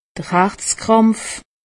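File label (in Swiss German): "herzkrampf", Alsatian; medecine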